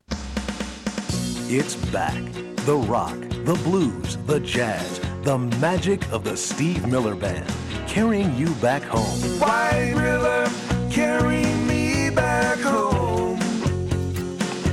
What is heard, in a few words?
Radio Commercials